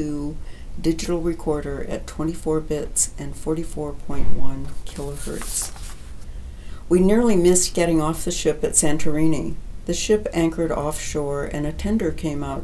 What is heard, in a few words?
Crown Sound Grabber 2 female, microphones